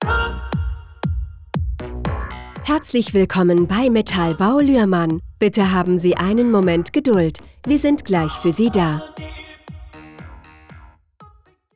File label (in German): Ansagen; Metallbau Luehrmann; AVM; Ansagen 2020